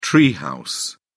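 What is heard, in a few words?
"tree house", British English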